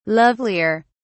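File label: "lovelier", British English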